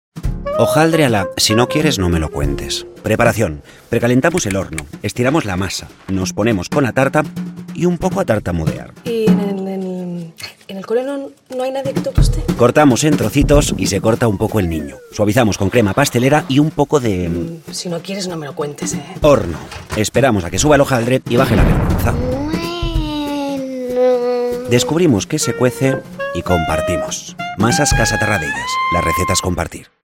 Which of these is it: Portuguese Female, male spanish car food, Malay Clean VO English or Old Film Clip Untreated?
male spanish car food